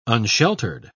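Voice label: "unsheltered", British English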